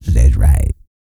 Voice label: E SPEECH, Vocal Planet R&B Dance; BASS CATCH; Spectrasonics